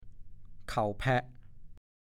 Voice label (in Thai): "เข่าแพ้ะ", ภาษาโคราช